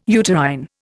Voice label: "uterine", Indian